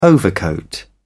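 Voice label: "overcoat", British English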